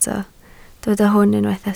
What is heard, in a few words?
"hwn", Welsh